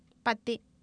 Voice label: "pAtI", Oapan, female; Oapan minimal pairs 1998

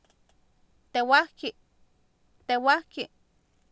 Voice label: "tewaahki", Oapan, female; Oapan minimal pairs 2000

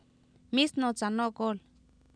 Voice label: mIisnOotsa nOokOol, Oapan, female; Oapan minimal pairs 1998

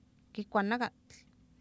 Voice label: kikwa nakatl, Oapan, female; Oapan minimal pairs 1998

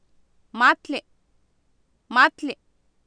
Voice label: "maatli", Oapan, female; Oapan minimal pairs 2000

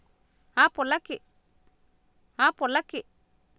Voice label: "AapolAki", Oapan, female; Oapan minimal pairs 2000

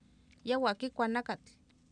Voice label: yAwa kIkwa nakatl, Oapan minimal pairs female; Oapan minimal pairs 1998

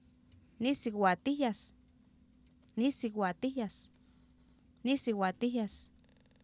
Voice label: nIisiwaatIhyes, Oapan minimal pairs female; Oapan minimal pairs 1998

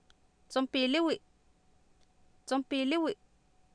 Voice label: "tsompiiliwi", Oapan, female; Oapan minimal pairs 2000

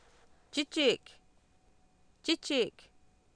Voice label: "chichiik" Nahuatl, Oapan, female; Oapan minimal pairs 2000

